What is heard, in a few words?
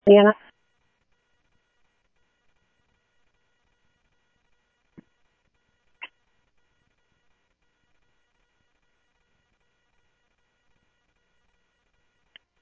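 Phoenix police department, scanner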